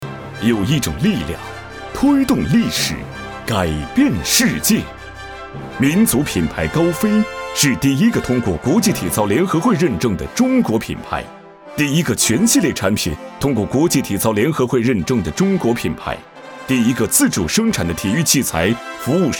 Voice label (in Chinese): 体育专题 激情; 体育专题高飞激情